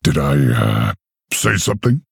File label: Male Orc Mirror Greetings